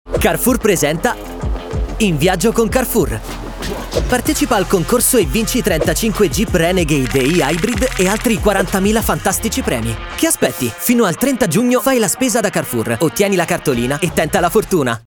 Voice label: Giovane, Morbido, Naturale; Tv Web; CARREFOUR; in viaggio con carefour

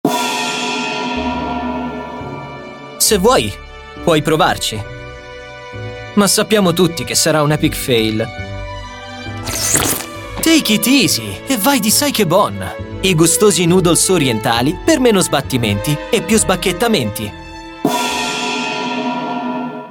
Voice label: Giovane, Ironico; Tv; SAIKEBON

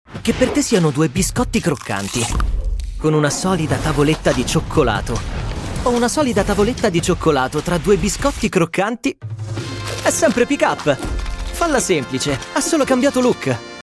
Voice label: Giovane, Interpretato; Tv; PICK UP

